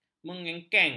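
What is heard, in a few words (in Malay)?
"mengengkeng", Malay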